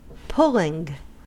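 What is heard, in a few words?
"pulling", US English; pronunciations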